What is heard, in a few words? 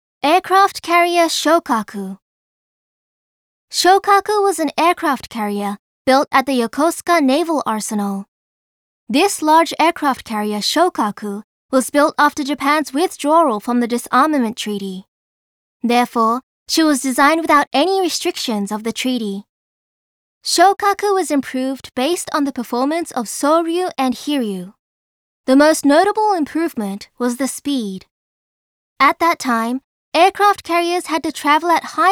English; Yamato Museum